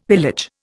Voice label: "pillage", Indian; Dictionary

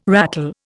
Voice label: "rattle", Indian

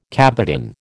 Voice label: "cabotin", American

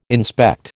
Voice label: "inspect", American

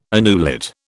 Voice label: "annulet", British

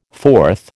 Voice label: "fourth", American

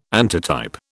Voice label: "antitype", British

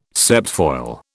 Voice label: "septfoil", British

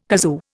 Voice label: "kazoo", Indian

